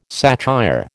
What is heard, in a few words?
"satire", American